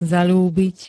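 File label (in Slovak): "zaľúbiť", Slovak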